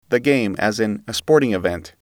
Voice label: "game", English

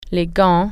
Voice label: gloves, french

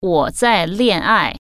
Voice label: "I am in love" female, Chinese

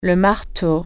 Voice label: hammer, french

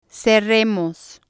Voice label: "cerremos", spanish

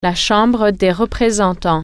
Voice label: house of representatives, french

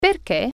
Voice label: why, italian

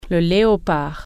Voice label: "leopard", French

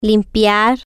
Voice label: clean, spanish

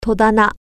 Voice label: cabinet, japanese

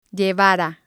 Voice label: "llevara", spanish

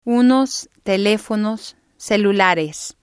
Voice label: "unos telefonos celulares", Spanish

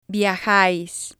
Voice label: "viajais", Spanish

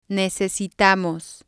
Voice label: "necesitamos", spanish

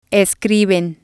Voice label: "escriben", Spanish

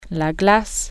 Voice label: ice cream, French

